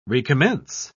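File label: "recommence", British English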